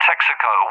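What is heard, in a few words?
"Texaco", English, UK; CallSign; DCS; Allied Flight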